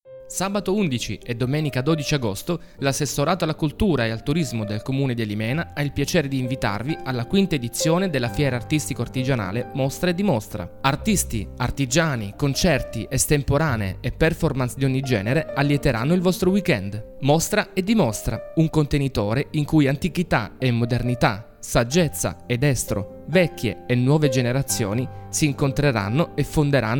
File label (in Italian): SPOT; ALIMENA